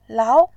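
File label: "lao2", Mandarin Chinese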